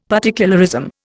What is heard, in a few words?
"particularism", Indian; Dictionary